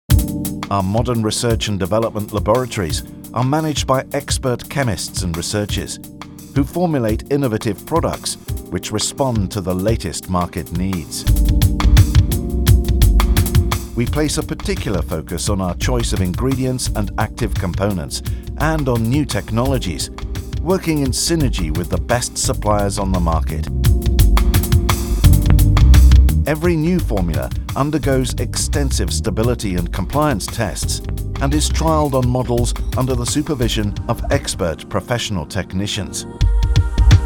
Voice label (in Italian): Aziendale inglese